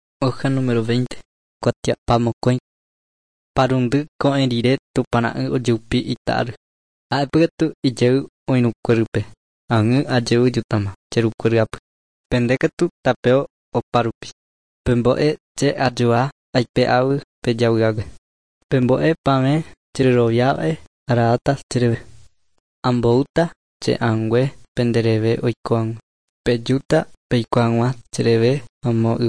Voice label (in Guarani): guarani mbya; good news and words of life; bible